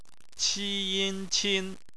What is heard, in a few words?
"qin", Chinese phonetics